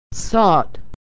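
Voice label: "sought", American English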